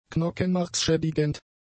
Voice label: "knockenmarksschädigend", German; adjectives

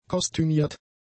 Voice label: "kostümiert", German; adjectives

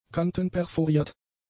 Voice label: "kantenperforiert", German; adjectives

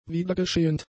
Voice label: "wiedergeschehend", German; adjectives

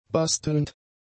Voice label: "bastelnd", German; adjectives

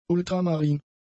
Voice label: "ultramarin", German; adjectives

